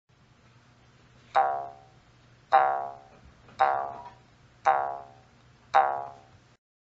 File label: Kumbing oh vowel